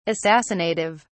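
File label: "assassinative", British English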